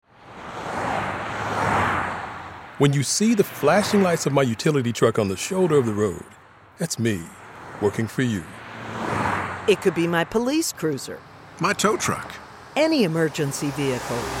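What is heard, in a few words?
move over law radio English; move over or slow down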